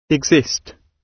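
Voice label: "exist", British English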